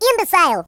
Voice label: Nutter, Russian; hedgewars

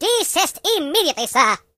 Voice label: Cut it out, British

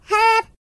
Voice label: Jump, voices, Singer; HedgewarsMobile

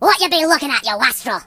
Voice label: Hello, Pirate voice; hedgewars

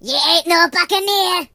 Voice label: "Missed", Pirate

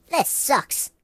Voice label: Solong, Mobster; hedgewars

